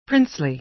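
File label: "princely", American English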